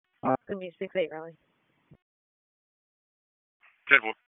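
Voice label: Police Dispatch, p25; Raleigh; Northeast District; wake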